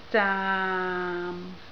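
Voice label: "zaam6" Cantonese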